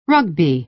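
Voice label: "rugby", American English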